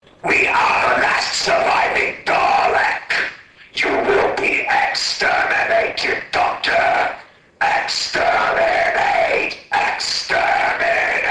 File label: 15hz dalek